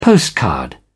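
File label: "postcard", British English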